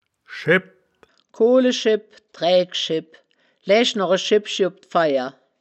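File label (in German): "schebph", Wittlicher Wörterbuch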